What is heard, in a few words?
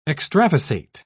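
"extravasate", British English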